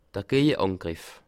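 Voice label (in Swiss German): "geje", Bas Rhin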